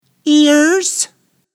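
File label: "ears", English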